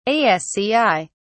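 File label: "asci", British English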